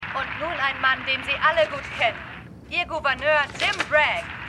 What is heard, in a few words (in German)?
Ansagerin; Stimmproben; For All Mankind